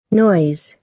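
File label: "noise", American English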